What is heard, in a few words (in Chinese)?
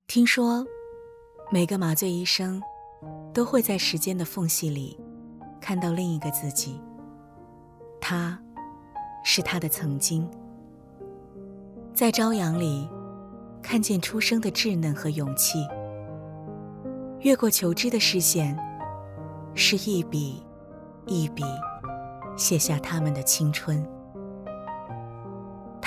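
中文宣传宣传片; 华西医院宣传片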